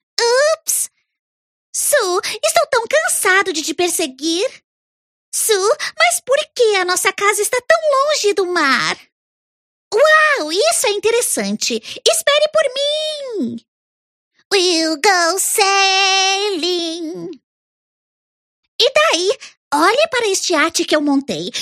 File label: Character